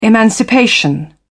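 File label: "emancipation", British English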